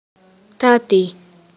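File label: dad, Romanian; PictureDictionary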